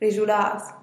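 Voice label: "rizhulaaz", Teotitlán del Valle Zapotec; like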